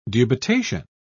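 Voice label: "dubitation", British English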